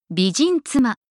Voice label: beautiful wife "bijin tsuma", Japanese pronunciation